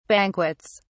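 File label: "banquets", British English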